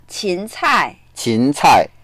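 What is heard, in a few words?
"qin2cai4", Mandarin Chinese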